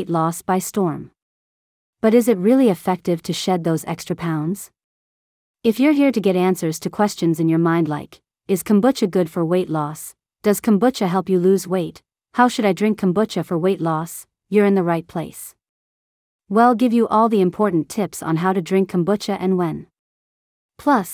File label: Voiceover; Is Kombucha Good for Weight Loss